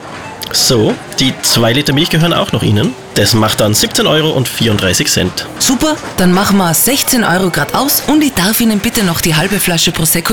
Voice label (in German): Radiospot; VOWA; Eintauschbonus